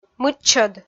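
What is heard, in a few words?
"мыччӧд", Komi-Zyrian, female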